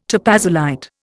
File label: "topazolite", Indian